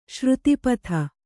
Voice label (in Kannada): "ಸರಿತ್ಪತಿ", Kannada